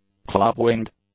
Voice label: "Club-winged", English; nouns

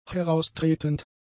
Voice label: "heraustretend", German; adjectives

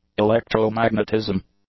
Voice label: "electromagnetism", English; nouns

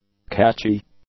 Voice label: "catchy", English; adjectives

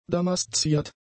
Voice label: "damasziert", German; adjectives

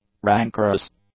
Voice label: "rancorous", English; adjectives

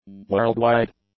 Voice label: "worldwide", english; adjectives

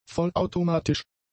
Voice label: "vollautomatisch", German; adjectives

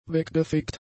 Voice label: "weggefegt", German; adjectives

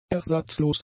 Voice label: "ersatzlos", German; adjectives

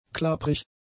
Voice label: "klapprig", German; adjectives

